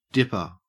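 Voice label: "dipper", Australian English; pronunciations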